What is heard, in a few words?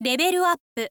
levelup, info lady